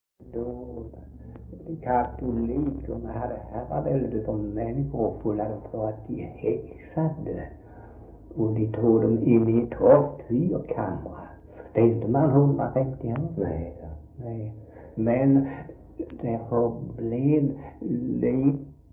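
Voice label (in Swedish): Svensköp Skåne, dialekter; Folkminnen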